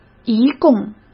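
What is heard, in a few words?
"一共", Mandarin Chinese; chinese-flashcards; hsk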